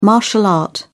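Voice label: "martial art", British English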